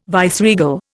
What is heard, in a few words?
"viceregal", Indian